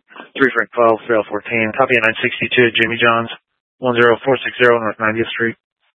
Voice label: Scottsdale Police, Simulcast

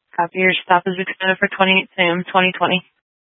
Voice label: Sheriffs Office, scanner

